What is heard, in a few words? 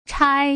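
"chai", Mandarin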